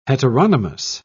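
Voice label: "heteronymous", English, British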